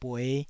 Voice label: "bue5", taiwanese Syllables

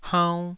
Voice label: "haunn2", taiwanese

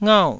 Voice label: "ngau4", taiwanese syllables

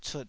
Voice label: "cut3", Taiwanese syllable

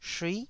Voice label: "shui9", taiwanese Syllables

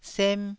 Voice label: "sem1", taiwanese

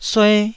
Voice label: "sue1", taiwanese Syllables

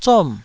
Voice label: "zom4", taiwanese

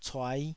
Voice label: "cuai5", Taiwanese syllable

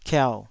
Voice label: "kiau3", taiwanese syllables

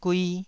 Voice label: "gui2", taiwanese

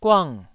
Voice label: "guang4", Taiwanese syllable

